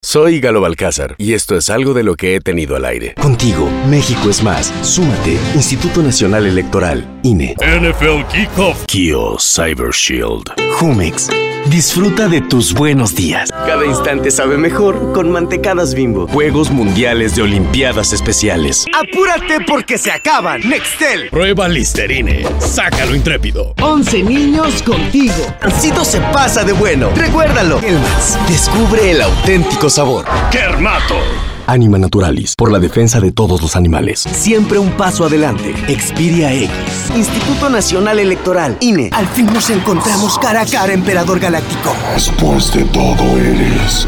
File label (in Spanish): Comerciales